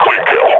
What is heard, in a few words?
announce kill, combine grunt